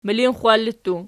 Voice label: "milinxxwalli", Archi